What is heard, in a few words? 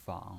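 "fang", Mandarin Chinese, third tone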